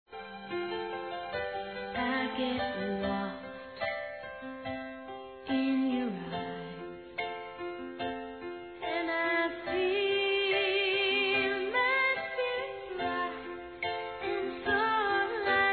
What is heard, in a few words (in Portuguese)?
ANIV. ROMANTICO M